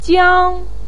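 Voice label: "jiang", Mandarin pinyin, first tone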